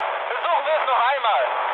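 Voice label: German bomb failure mood med, speech; War Thunder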